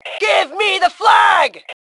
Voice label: Flag Give Me, Female; T2 Female 04